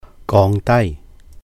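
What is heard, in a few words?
"gong dài", Cantonese; lower; reduce; 降低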